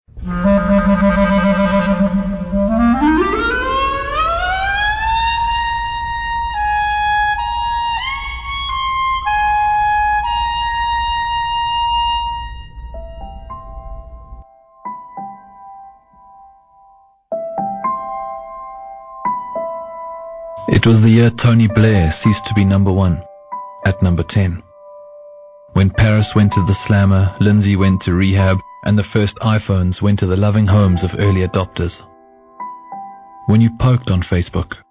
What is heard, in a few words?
Duracell Advert